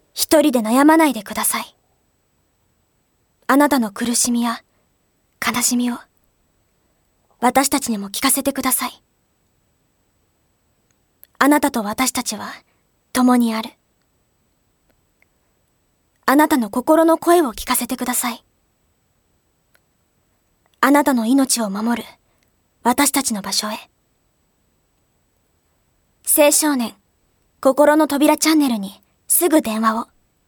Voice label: narration